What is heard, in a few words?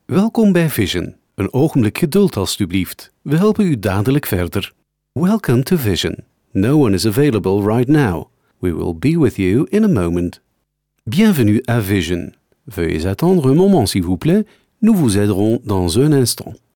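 flemish male ivr; dutch; voice demos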